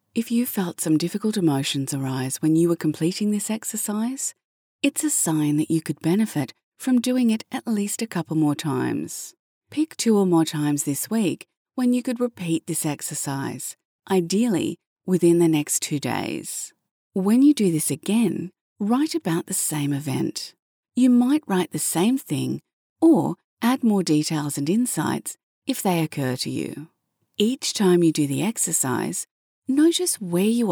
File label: female australian voice over narrative